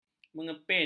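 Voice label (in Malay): "mengepin", Malay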